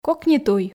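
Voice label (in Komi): кокни туй, Komi, female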